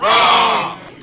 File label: group of men